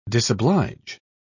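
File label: "disoblige", English, British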